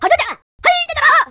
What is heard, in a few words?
vox jawa